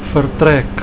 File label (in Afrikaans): "vertrek", Afrikaans